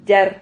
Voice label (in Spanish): "yar", Silabas